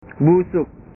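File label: "busuk", Indonesian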